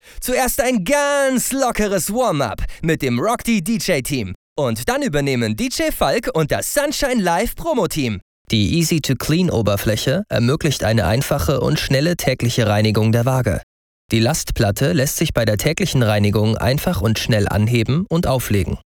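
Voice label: German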